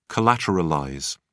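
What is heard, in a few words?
"collateralize", British English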